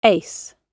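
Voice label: "ace" British English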